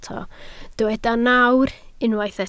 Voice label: "nawr", Welsh

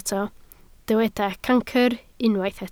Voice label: "cancr", Welsh

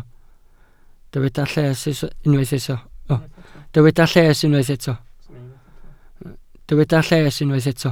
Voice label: "lles", Welsh

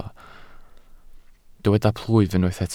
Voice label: "plwyf", Welsh

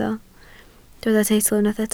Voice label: "teitl", Welsh

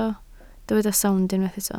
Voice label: "sownd", Welsh

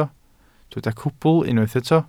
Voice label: "cwbl", welsh

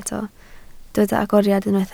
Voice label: "agoriad", Welsh

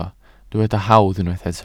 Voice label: "hawdd", Welsh

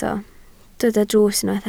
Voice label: "drws", Welsh

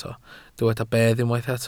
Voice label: "bedd", Welsh

